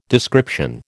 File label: "description", American